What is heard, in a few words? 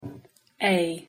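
"a" pronunciation English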